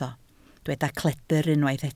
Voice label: "cledr", Welsh

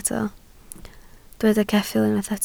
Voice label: "ceffyl", Welsh

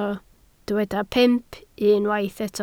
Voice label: "pump", Welsh

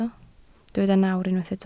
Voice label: "nawr", Welsh

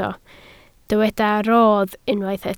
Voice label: "rodd", Welsh

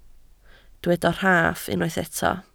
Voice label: "rhaff", Welsh

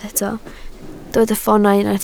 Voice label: "ffoonau", Welsh

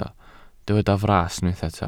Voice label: "fras", Welsh

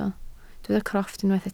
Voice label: "crofft", Welsh

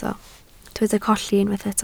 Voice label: "colli", Welsh

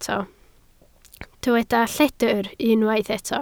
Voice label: "lledr", Welsh

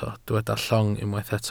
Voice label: "llong", welsh

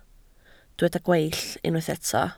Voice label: "gweill", Welsh

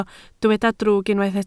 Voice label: "drwg", Welsh

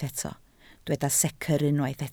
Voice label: "secr", Welsh

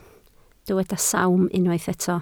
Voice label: "sawm", Welsh

